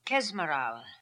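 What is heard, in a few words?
"Kezmaral", pronunciations; Fourth Way; Beelzebub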